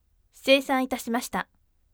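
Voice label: voice seisan itashimashita firm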